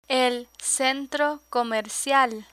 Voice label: "centro comercial", Spanish

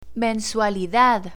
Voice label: "mensualidad", Spanish

